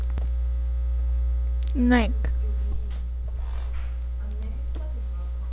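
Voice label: "neck", spoken word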